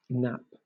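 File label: "nap", English, British accent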